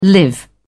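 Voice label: "live together", British English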